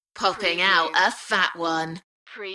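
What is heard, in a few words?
British female Poppin out a fat one; beanstalk audio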